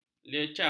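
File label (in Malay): "lecah", Malay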